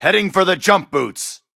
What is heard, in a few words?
Voices Character Male